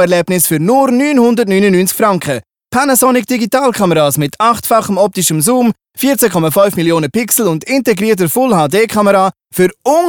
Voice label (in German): Werbung Elektro CH Deutsch; Brändli